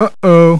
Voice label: uh oh